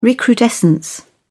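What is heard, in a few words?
"recrudescence", British English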